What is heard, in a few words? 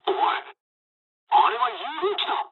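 player killed ally mood med, speech japanese; War Thunder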